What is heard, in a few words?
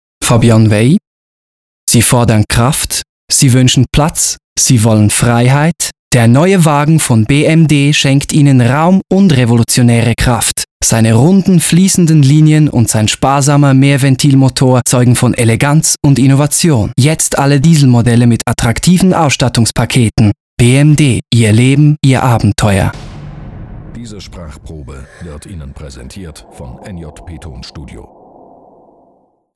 Spot Neutral; Sprecher-Samples; Aufgenommen im NJP Tonstudio AG in Küsnacht, ZH.